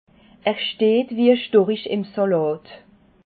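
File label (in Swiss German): "storich", Alsatian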